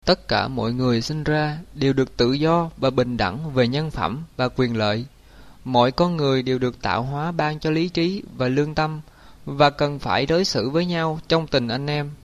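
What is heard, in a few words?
Vietnamese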